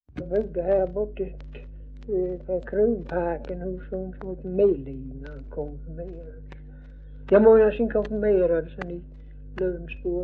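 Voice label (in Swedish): Örkened Skåne, Svenska sagor dialekter; Folkminnen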